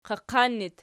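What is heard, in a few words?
"qaqan" locative, Archi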